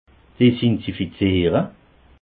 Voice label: "dezinfiziere", alsace

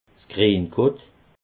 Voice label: "grienkot", alsace

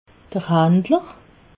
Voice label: "handler", alsace

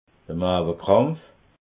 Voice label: "maawekrampf", alsace

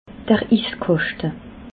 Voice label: "iskaschte", alsace

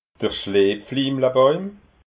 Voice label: "schleepflimlabauim", alsace

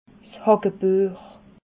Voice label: "hagebuech", alsace

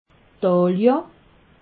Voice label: "dahlje", alsace

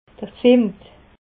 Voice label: "zimmet", alsace

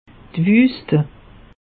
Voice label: "wuste", alsace; meteo

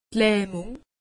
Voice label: "lahmung", alsace; medecine

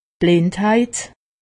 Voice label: "blindheit", alsace; medecine

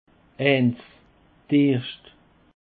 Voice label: "eins", alsace

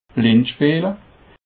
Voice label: "bleedspeele", Alsace

